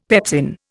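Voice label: "pepsin", Indian English; Dictionary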